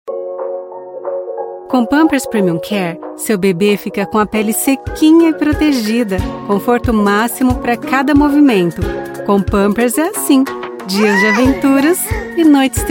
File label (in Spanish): comercial